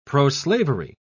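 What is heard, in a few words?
"proslavery", British English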